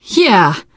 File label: nice job, teengirl